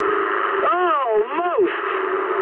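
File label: bomb failure mood high, speech English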